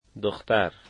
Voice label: "girl", dari